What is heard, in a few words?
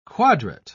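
"quadrat", English, British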